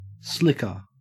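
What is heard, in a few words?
"slicker", Australian English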